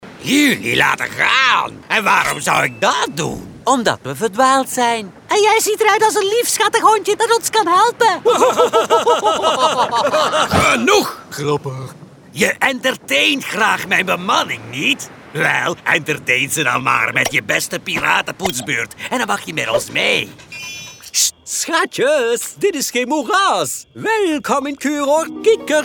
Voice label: male Flemish character and animation compilation; voices; devine voices